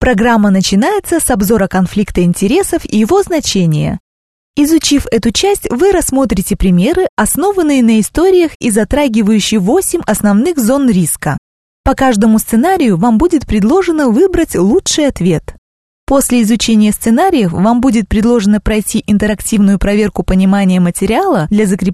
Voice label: russian e-learning